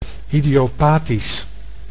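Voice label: "idiopathisch", Dutch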